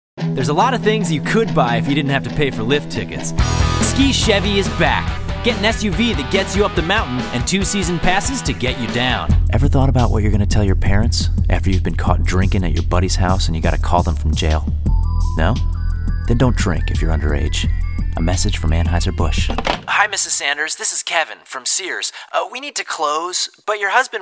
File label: Commercial